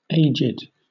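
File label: "aged", British English; pronunciations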